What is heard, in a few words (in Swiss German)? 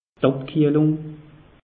"abkiehlung", alsace; meteo